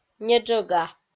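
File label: "неджӧга", Komi-Zyrian, female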